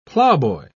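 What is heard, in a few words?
"ploughboy", British English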